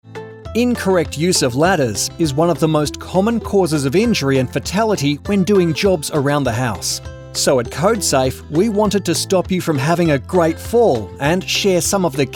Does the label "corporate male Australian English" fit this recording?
Yes